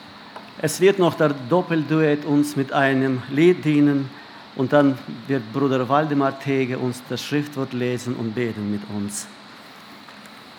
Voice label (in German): Ansage; Die Ernte der Erde ist duerr geworden